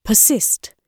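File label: "persist", British English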